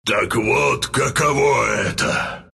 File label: death, ru; dota2